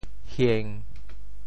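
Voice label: "hieng1", Teochew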